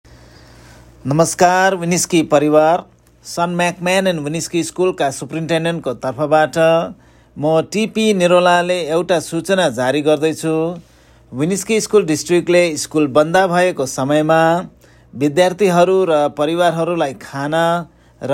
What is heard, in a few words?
nepali